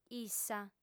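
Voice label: "issa", female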